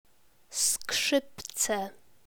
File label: "skrzypce" Polish